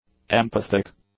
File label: "empathic", English; adjectives